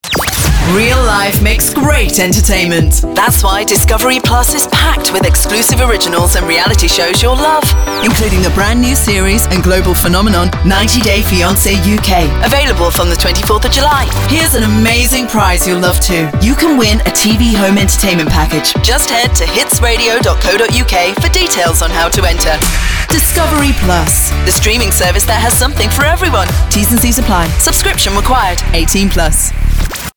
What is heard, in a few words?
Promo Trailer; DISCOVERY PLUS